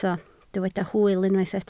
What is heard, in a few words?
"hwyl", Welsh